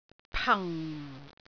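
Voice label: "pang4", Cantonese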